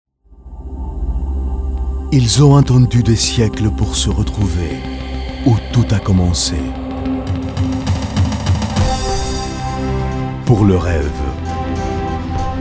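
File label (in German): Sanft, French; Fremdtake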